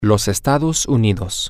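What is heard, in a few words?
the united states, spanish, male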